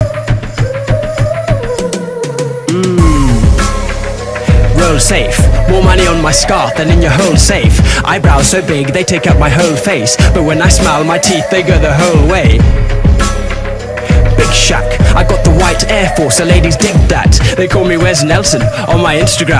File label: british